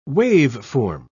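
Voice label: "waveform", British English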